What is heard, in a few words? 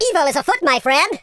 stupid, speech, Super Hero